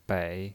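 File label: "bei", Mandarin Chinese, third tone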